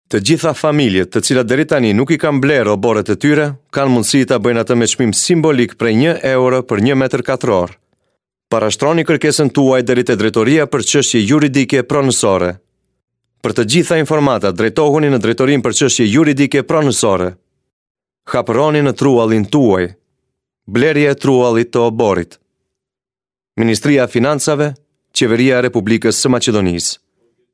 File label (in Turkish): Arnavutluk Erkek, arnavutca seslendirme